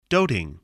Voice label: "doting", spelling

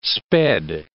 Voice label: "sped", english

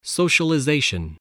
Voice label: "socialization", English voice

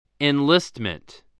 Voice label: "enlistment", spelling

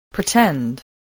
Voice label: "pretend", voice, spelling

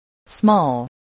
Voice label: "small", voice, spelling

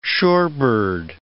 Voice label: "shorebird", words

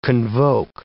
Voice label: "convoke", English

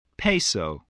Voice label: "peso", voice, English

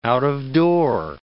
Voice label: "out-of-door", English

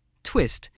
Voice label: "twist", English spelling voice

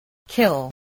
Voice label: "kill", English

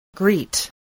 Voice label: "greet", spelling

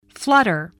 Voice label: "flutter", English

